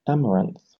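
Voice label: "amaranth", British English; pronunciations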